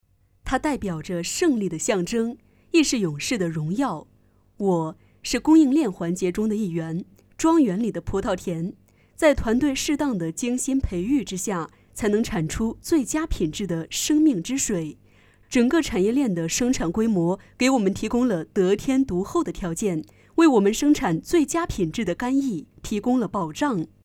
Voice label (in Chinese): 解说干邑葡糖酒产品配音